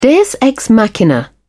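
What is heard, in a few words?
"deus ex machina", British English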